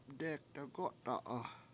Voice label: "dE7ek degOta7a7" split rock, Washo